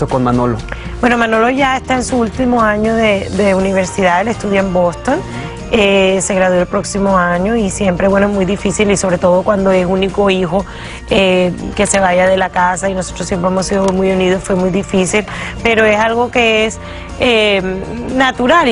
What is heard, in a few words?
spanish; sofia vergara